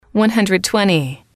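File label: "one hundred twenty", English, female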